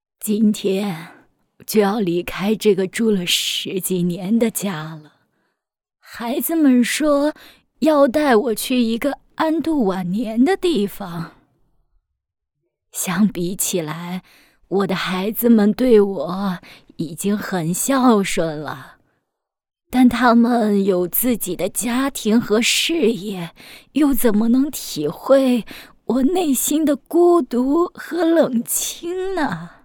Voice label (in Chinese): 短剧配音